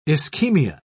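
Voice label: "ischemia", British English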